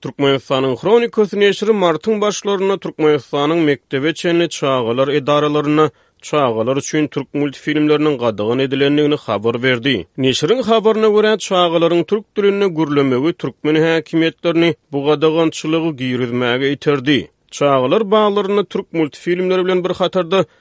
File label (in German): turkmenisch, Sprachen